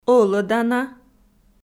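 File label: "ӧлӧдана", Komi, female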